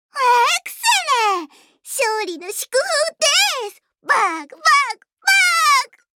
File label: Voice victory